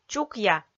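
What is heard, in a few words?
"чукъя", Komi, female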